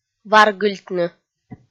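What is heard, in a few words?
"варгыльтны", Komi, female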